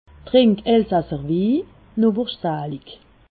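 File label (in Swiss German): "wi", Alsatian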